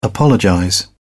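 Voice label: "apologize", British English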